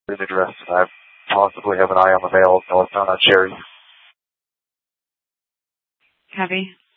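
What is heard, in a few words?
police dispatch radio, scanner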